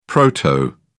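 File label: "proto", British English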